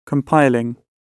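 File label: "compiling", British English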